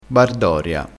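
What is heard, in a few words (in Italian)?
"bardoria", dialettale crespinese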